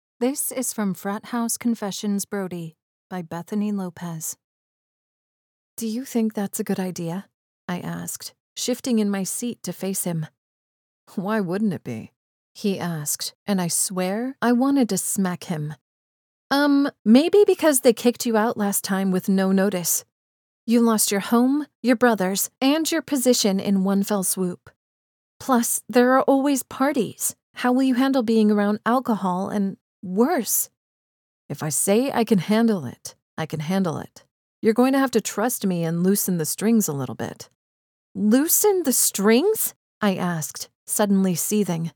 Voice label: Narrator